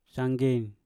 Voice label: "sangen", Mpur; tanjung